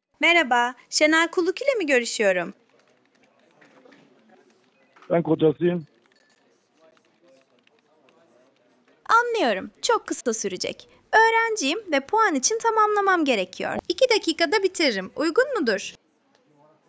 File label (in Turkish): Yapay Zeka Arama, Görüşme Kaydı; Ben kotasıyım.; İki dakikada bitiririm, uygun mudur?; Ne dersin?